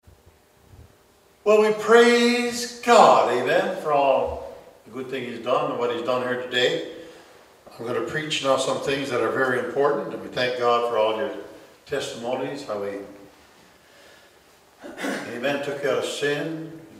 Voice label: Preaching; Preaching on Straight Way